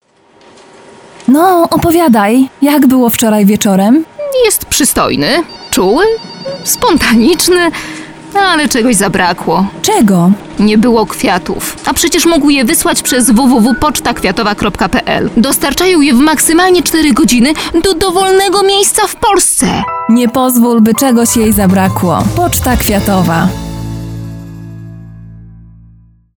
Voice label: Dialog; Poczta Kwiatowa pl